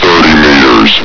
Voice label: "thirty meters", Combine soldier; hl2